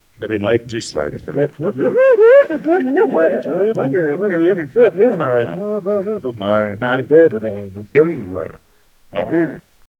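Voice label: loud curious cartoon style, conversation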